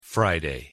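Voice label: "friday", English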